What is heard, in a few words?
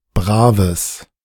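"braves", German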